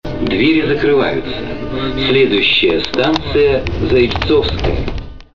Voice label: male